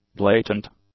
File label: "blatant", English; adjectives